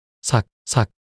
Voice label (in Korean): 삭삭 낱소리 남자